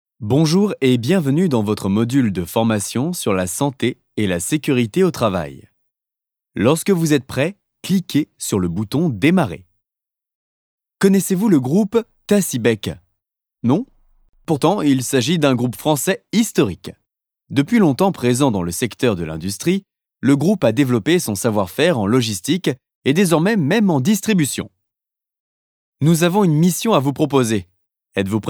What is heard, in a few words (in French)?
Elearning Introduction